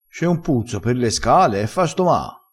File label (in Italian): "stomaa", crespinese